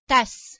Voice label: "tas", Lithuanian